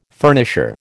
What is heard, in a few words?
"furnisher", American